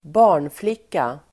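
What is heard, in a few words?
"barnflicka", Swedish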